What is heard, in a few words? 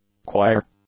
"choir", English; nouns